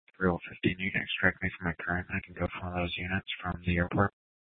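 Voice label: Police Department North Dispatch, scanner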